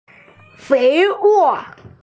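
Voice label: "fei wo" Chinese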